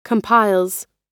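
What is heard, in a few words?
"compiles", US English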